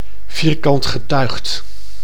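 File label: "vierkantgetuigd", Dutch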